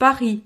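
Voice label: "paris", French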